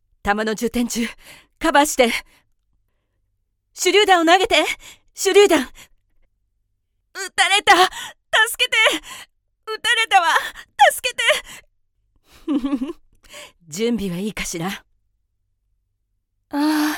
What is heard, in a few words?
Female warrior